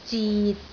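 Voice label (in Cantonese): "zit6", Cantonese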